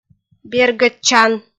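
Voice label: "бергӧдчан", Komi-Zyrian, female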